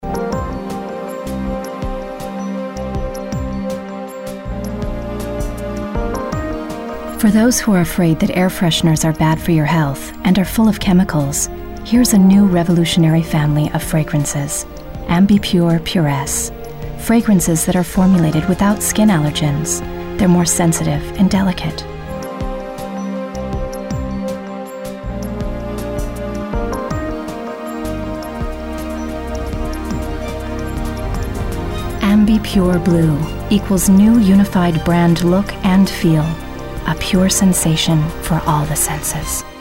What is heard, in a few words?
corporate